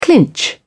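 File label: "clinch", British English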